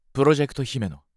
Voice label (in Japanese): サウンドロゴボイス